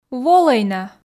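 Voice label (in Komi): "волӧй на", Komi, female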